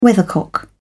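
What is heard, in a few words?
"weathercock", British English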